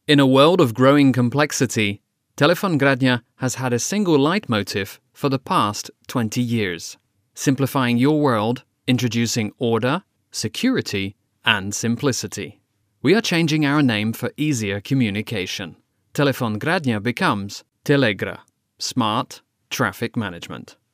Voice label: presentation, english, GB